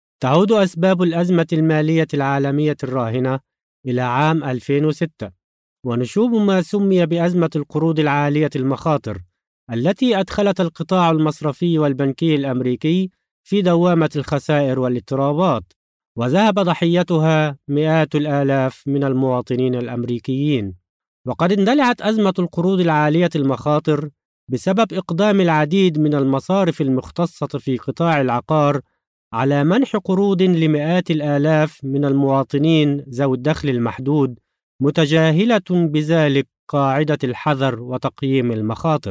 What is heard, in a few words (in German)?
Nachvertonung Film Sprecher Arabisch